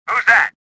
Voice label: suspicious; XBOX; worker